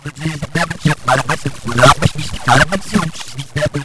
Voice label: babble